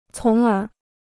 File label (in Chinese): "从而", Chinese